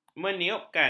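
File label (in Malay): "meniupkan", Malay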